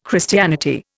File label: "Christianity", Indian